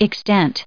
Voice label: "extent", us